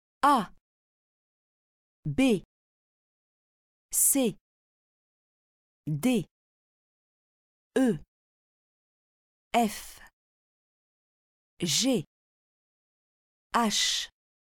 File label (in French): alphabet français